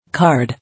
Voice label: "Card", English